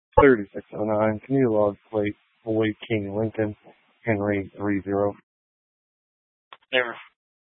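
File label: Police Dispatch, scanner audio recording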